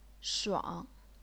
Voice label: "shuang3", chinese pinyin